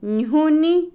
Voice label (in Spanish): platillo, Otomí, mujer; Comida; INALI